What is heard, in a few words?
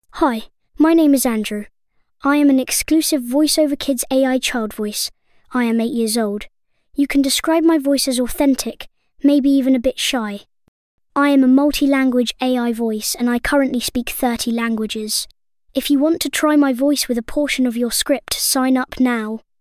boy8; voiceoverkids